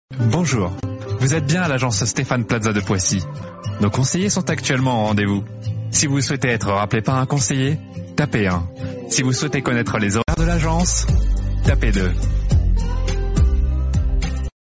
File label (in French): Fr male; french